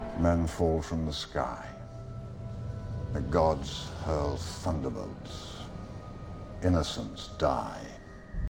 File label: Is Calling Pop, ringtones